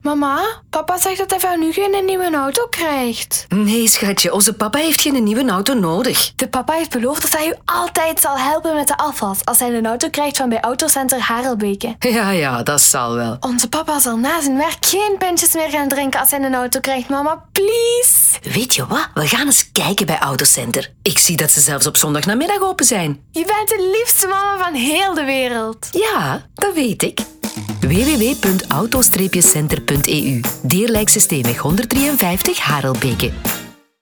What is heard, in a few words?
teenage voice; Autocenter